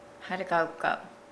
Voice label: Nukuoro; bathhouse

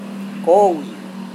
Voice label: "kowi", serewen

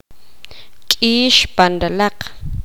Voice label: poqomam; agudo

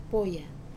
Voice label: "poya", deaf, wamesa

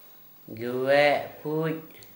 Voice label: Gta Birds cuckoo, Dialect: Hill

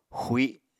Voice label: "xui", Apatani

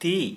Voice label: "Hand", Santali

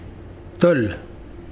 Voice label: Mokilese mountain

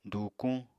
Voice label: "dùukuñ", Apatani

